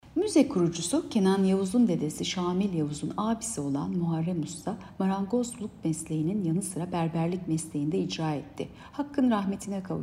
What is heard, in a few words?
turkce